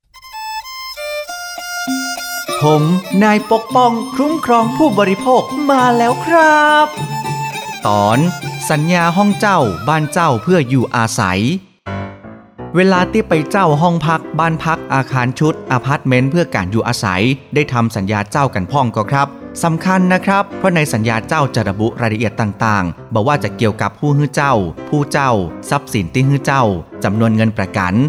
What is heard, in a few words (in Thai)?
สปอตวิทยุ ภาคเหนือ; สื่อประชาสัมพันธ์; สคบ.; สำนักงานคณะกรรมการคุ้มครองผู้บริโภค